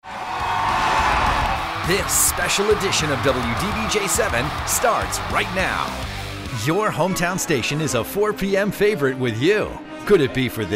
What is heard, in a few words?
tv affiliate men